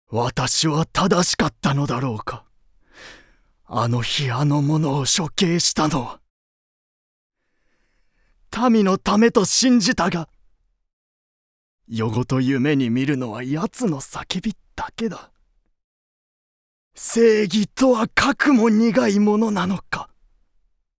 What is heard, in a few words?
Dialog